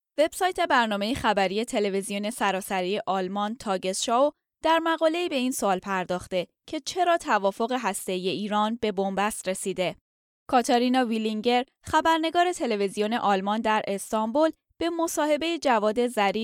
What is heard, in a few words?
Farsi News Report